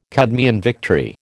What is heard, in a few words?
"Cadmean victory", American